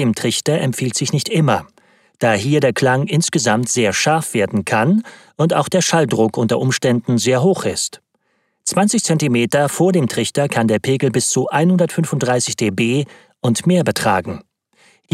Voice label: e-learning explainer trompete